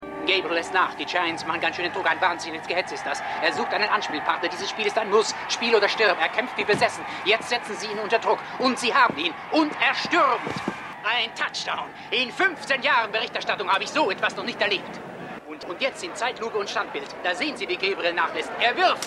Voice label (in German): TV Sportkommentator; Maennerwirtschaft